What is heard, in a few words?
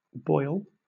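"boil", British English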